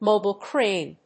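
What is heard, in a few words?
"mobile crane", English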